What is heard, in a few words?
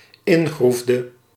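"ingroefde", Dutch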